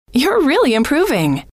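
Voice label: you are really improving, female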